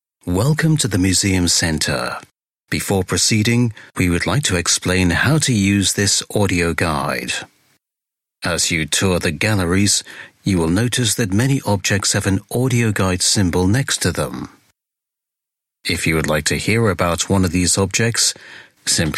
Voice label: audioguide, english voiceovers